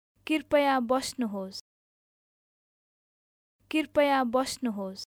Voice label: Please take a seat Nepali

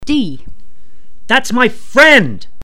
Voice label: emotion

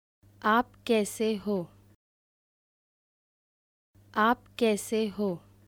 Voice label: How are you Hindi male

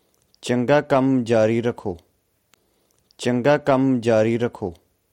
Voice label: Keep up the good work, punjabi

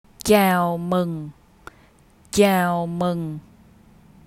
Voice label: Welcome vietnamese; Greetings

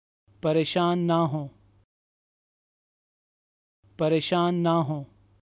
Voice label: No worries Urdu

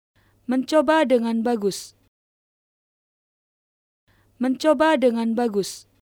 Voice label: Good try Indonesian

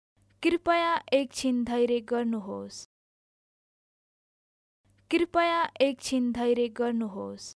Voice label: Please wait for a while, Nepali

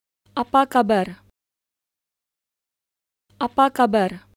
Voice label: How are you, Indonesian

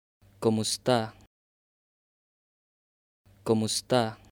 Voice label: How are you, Tagalog

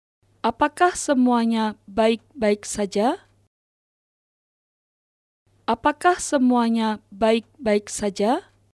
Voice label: Is everything fine Indonesian

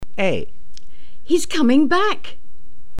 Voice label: emotion

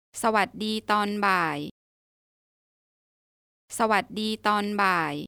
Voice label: Good afternoon Thai

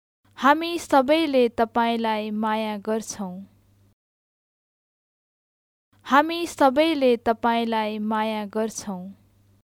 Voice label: We all love you, Nepali

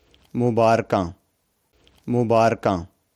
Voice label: Congratulations punjabi

